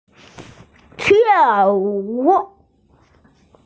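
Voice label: "dance", Mandarin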